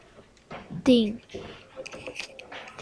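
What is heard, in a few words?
"ding", spoken word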